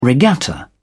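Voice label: "regatta", British English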